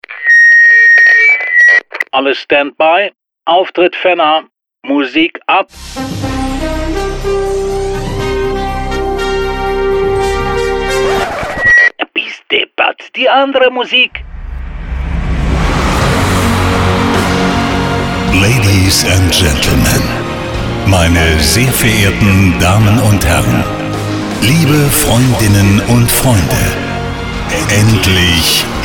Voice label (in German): Opener neutral lang; neutral lang MIT Gag